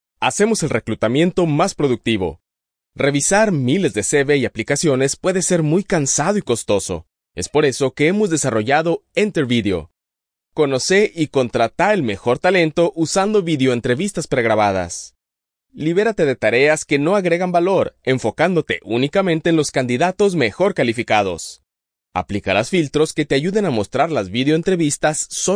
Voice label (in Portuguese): ESPANHOL LATINO HOMENS E-LEARNING